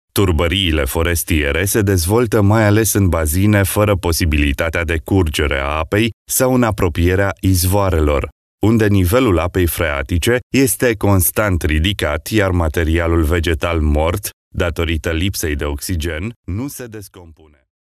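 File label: Romanian, male, narration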